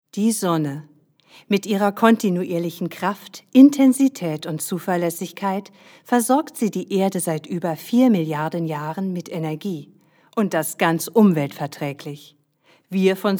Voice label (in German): imagewerbung